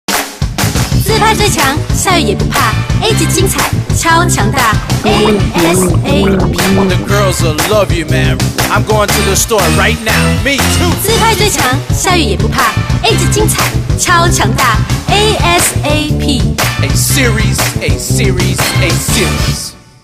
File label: Mandarin; Chinese-Mandarin; Personal soundproof booth in Manchester, UK; Microphone: Blue USB Studio Mic